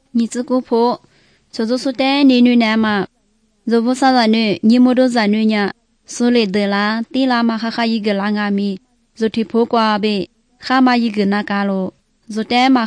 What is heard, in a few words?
good news lisu southern, drama